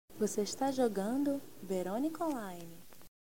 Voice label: nota de voz

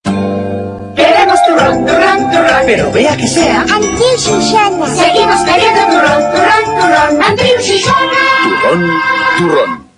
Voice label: turron anuncio; turron antiu xixona

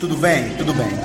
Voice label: nota de voz

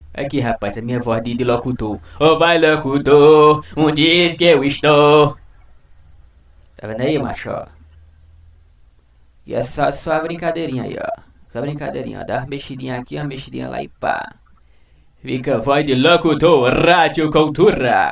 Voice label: locutor

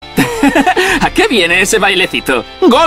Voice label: la voz de, español; elsword

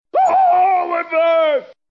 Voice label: oh my leg grito hombre

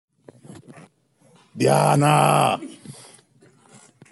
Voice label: nota de voz

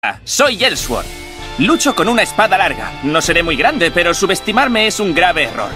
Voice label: la voz de, español; elsword

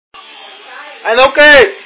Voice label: nota de voz

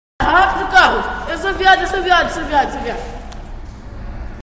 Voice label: nota de voz

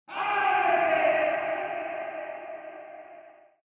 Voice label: abrete echo

